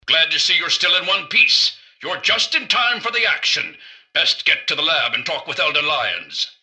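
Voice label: greeting, robot; dialoguecitadel; mrgutsy; npc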